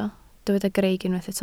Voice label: "greic", Welsh